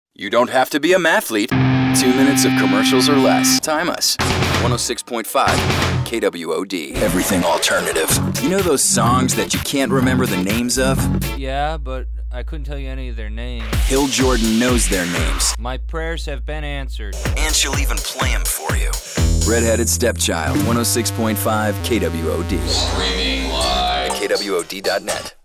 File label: Radio Imaging